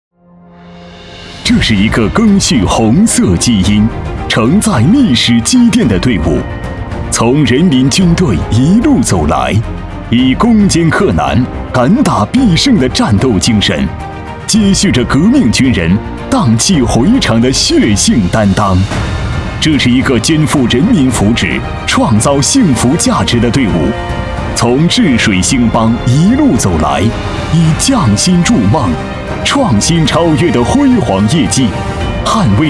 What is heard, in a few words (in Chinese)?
男 激昂高亢 宣传; 安能集团宣传